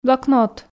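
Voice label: "блокнот", Komi, female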